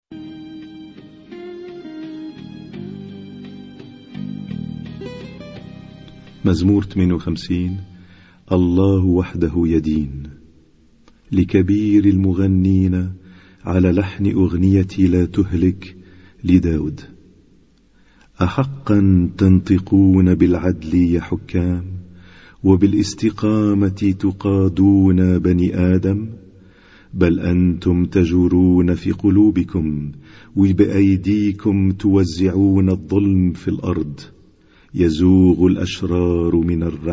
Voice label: Arabic Psalm Zaboor